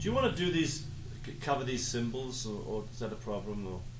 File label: cymbal talk, MALE; MALE MISC